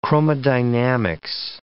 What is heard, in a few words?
"chromodynamics", English